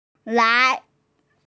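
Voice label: "lai2" Chinese, female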